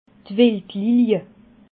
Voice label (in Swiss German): "wildlilia", alsace